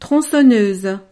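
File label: "tronconneuse", French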